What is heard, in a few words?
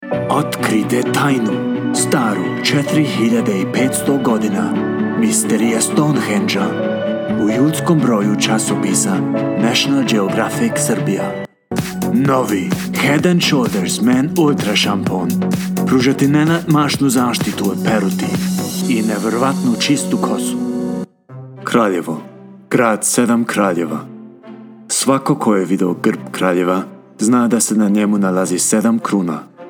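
SR General, Serbian; GoLocalise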